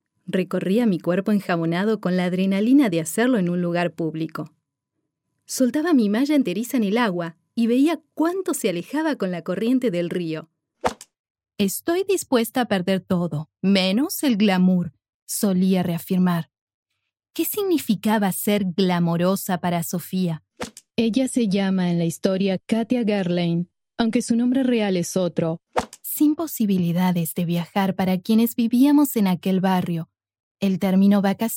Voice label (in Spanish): Audiolibros